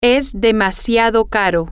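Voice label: that is way too expensive, spanish, female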